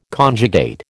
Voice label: "conjugate", American